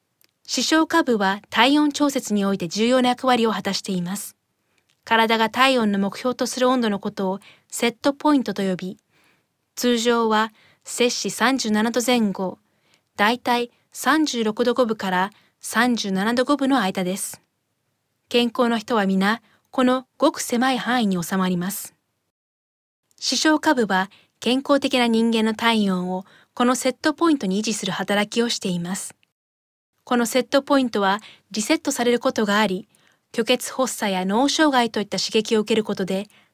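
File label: Japanese medical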